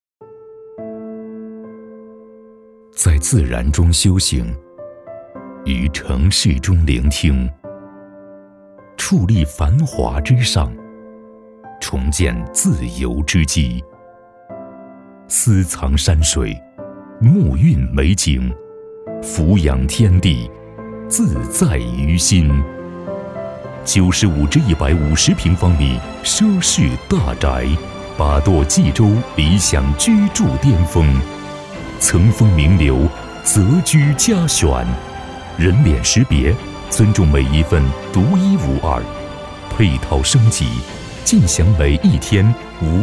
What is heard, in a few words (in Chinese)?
宣传片; 理想居住巅峰